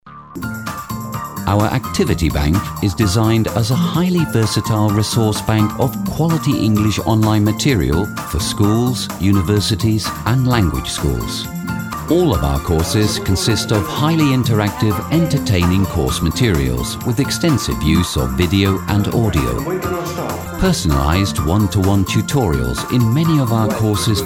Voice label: Corporate